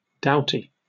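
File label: "doughty", British English; pronunciations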